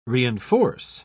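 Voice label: "reenforce", English, uk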